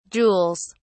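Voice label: "jewels", British English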